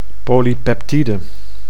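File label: "polypeptide", Dutch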